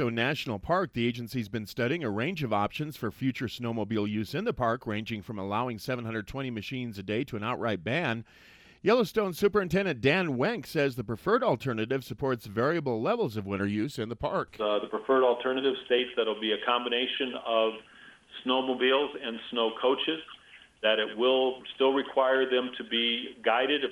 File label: wyoming radio news